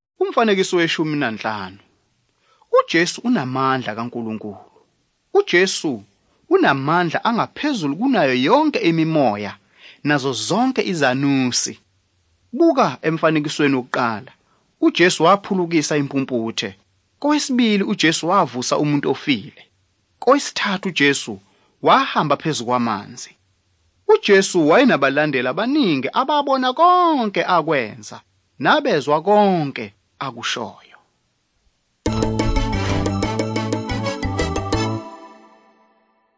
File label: zulu bible; good news